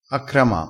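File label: "accrama", Italian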